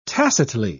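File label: "tacitly", British English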